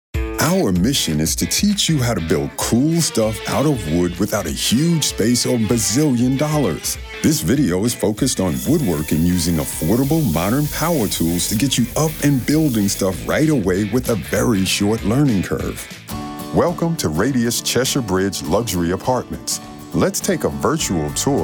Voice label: Narration